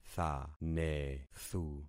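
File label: "thanethu", concatenated syllables; Affixing experiment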